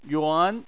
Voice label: "yuan2", Chinese